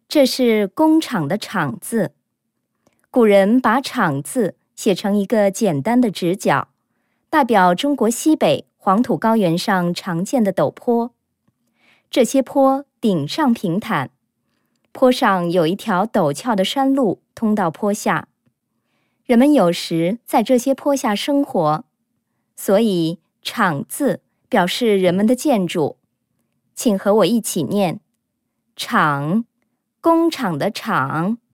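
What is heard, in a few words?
Chinese, narration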